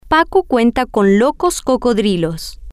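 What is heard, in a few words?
Spanish, female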